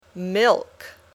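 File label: "milk", English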